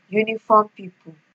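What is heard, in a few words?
"uniform people", Nigerian Pidgin, female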